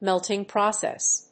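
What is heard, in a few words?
"melting process", English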